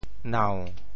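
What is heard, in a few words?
"nau7", Teochew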